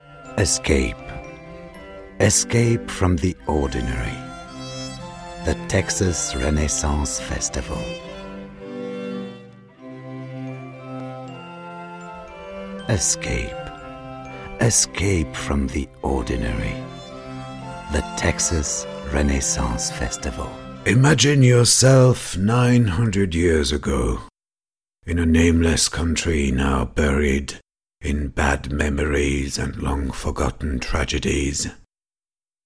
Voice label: ENG

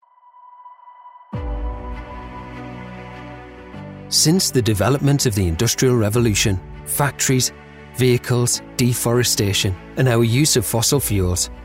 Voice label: Corporate and Narration

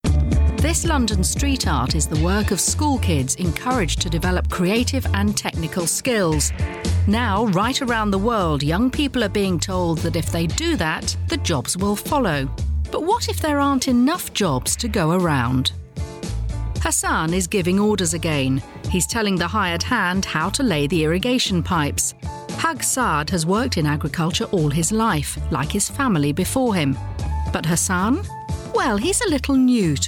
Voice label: Narration

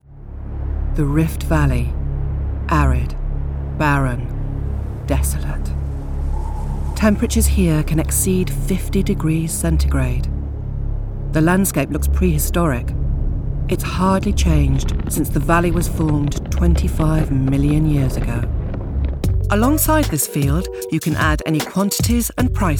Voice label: Narration

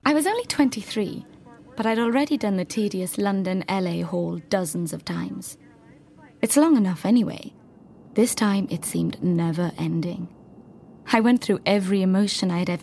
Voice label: Narration

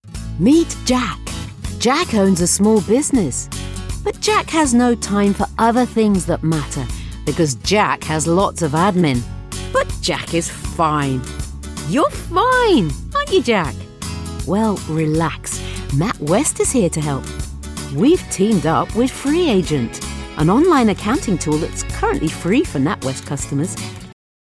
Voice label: Corporate

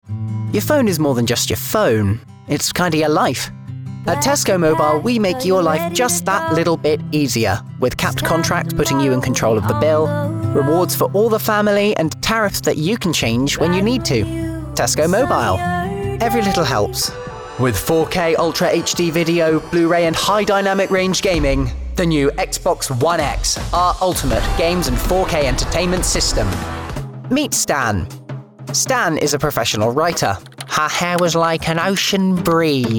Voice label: Commercial

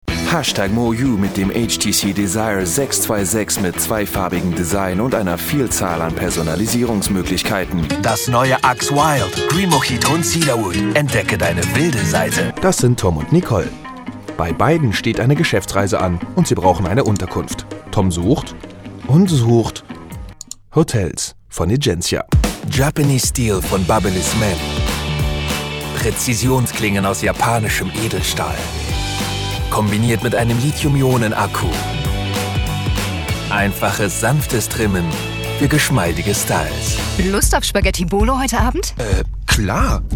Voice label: German Commercial

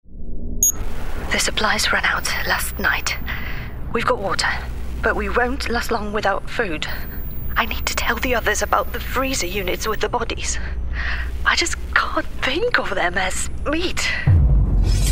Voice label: Gaming ENGLISH

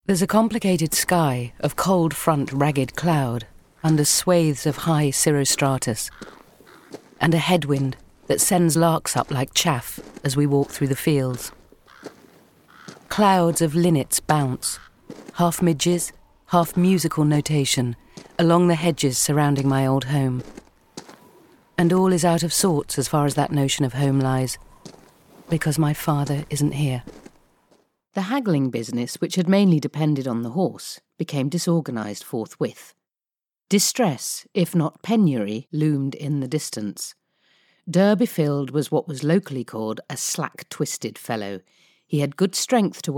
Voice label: Audiobook

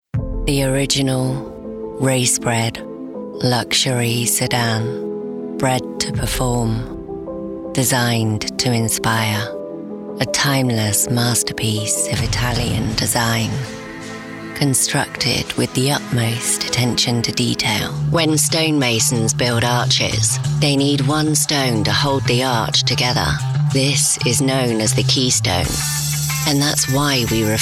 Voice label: Commercial US

